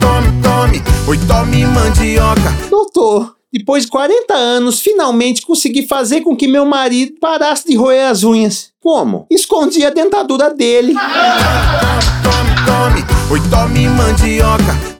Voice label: spot piada; rei da mandioca

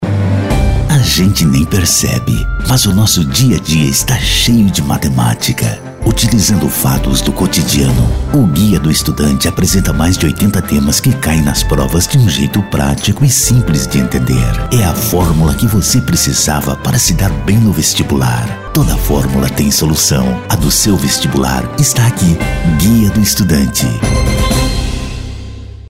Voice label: spot coloquial

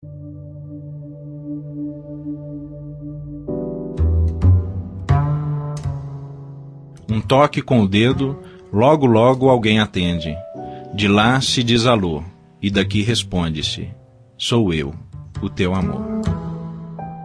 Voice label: telefonema

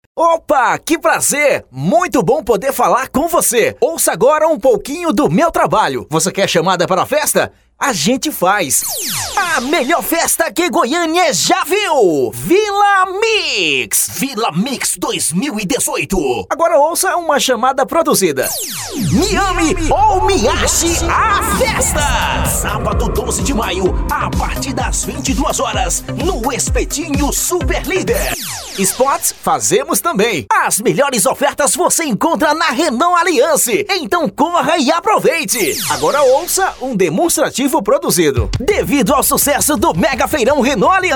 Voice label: locutor cafe

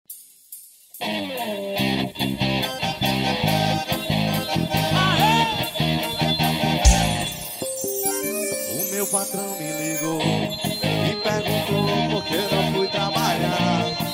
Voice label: promocional

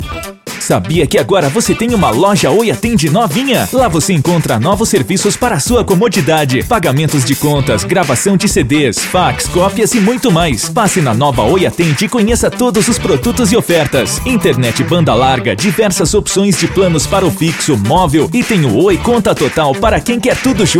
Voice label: spot; Oi loja Campo Grande

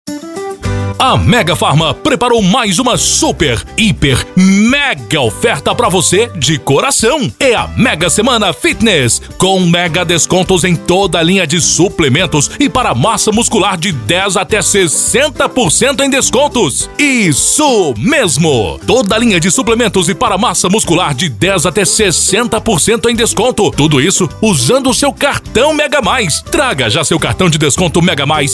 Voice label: VOZ IMPACTO GRAVE vinheta spot; para farmacia; chamada comercial

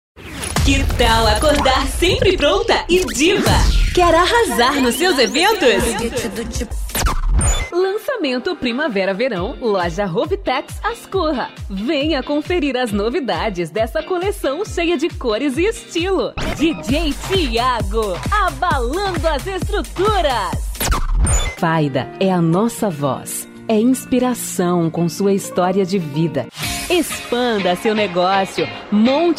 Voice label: publicidade locutora

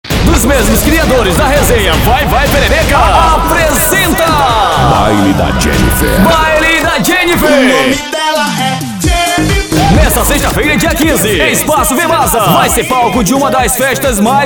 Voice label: voz jovem chamada baille; erre do brasil; studio brasil producoes

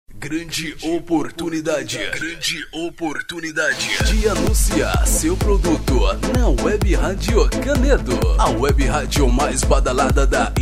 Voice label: vinheta publicidade

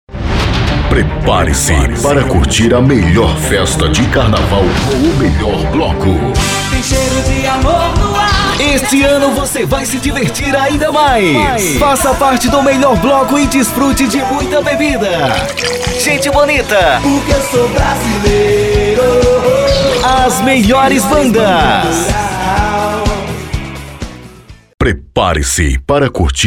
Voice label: vinheta locutor publicitario vinheta banco de voz off jingle; oi zap

